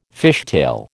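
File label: "fishtail", American English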